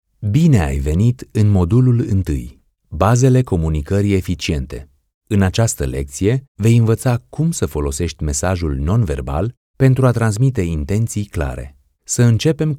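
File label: Romanian, male, Romanian voice-over, e-Learning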